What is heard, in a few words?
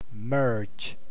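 "merge", US English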